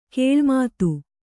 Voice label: "ಗಿಳಿಮಾತು", Kannada

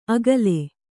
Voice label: "ಅಗಲೆ", Kannada

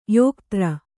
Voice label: "ಯೋಕ್ತ್ರ", Kannada

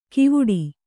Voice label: "ಕಿವುಡಿ", Kannada

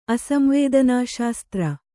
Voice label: "ಅಸಂವೇದನಾಶಾಸ್ತ್ರ", Kannada

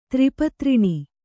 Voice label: "ತ್ರಿಪತ್ರಿಣಿ", Kannada

